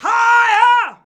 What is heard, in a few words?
"higher", shouts; Best Service; Hyper Dance